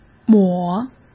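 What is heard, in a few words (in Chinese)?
"抹", Mandarin Chinese; hsk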